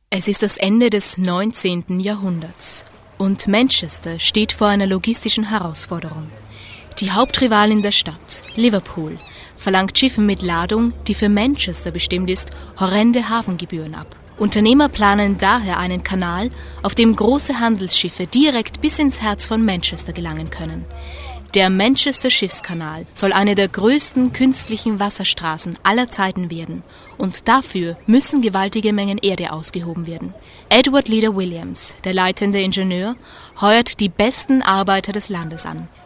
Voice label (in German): Kommentar